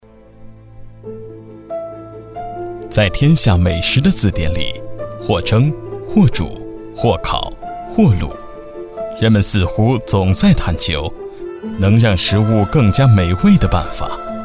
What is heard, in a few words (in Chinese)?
男 专题 熏鸡, 浑厚大气; 国语男声配音